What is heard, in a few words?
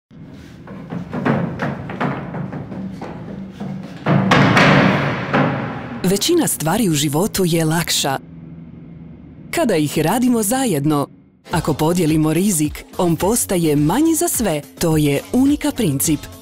Advert, croatian